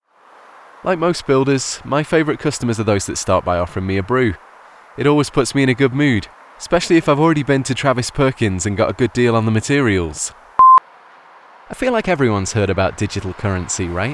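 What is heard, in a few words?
Real Person, Male Voiceover; professional English voice talent with a neutral or northern UK accent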